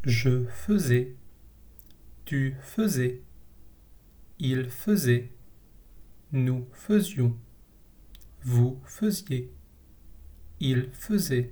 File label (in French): Verbe faire imparfait